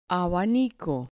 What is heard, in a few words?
"abanico", Spanish